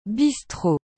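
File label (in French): "bistro", French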